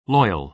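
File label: "loyal", American English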